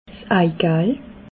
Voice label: "eigal", alsace